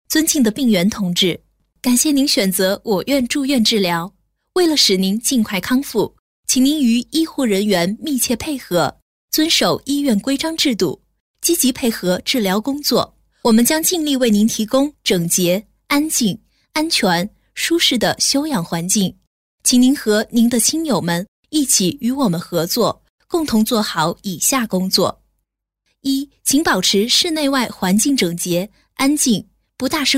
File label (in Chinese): 女 专题 医院注意事项, 国语女声配音; 多风格录音